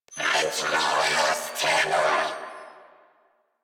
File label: Attack, Female; New War; Narmer Grineer